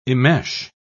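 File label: "immesh", British English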